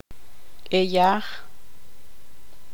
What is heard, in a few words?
tzutujil; diente